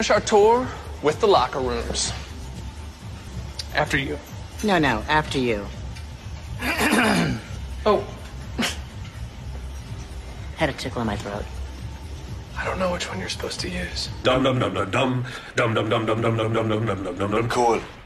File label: PLANET FITNESS COMMERCIAL